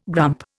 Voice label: "grump", Indian